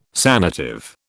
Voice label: "sanative", British